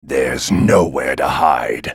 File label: move, en US; Talon